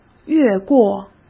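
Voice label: "越过", Mandarin Chinese; hsk; chinese-flashcards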